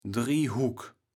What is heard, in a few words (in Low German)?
"Driehuck", kaevels platt